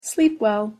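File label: pronunciation "sleep well", English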